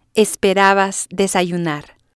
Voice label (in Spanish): "esperabas Desayunar", spanish